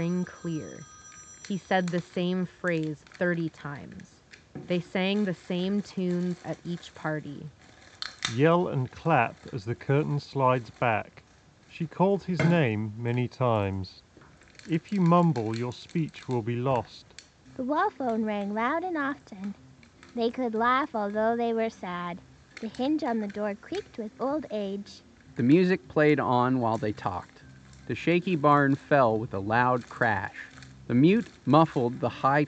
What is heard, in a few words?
Meze 99 Classics Office microphone sample